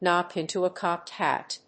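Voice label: "knock into a cocked hat", English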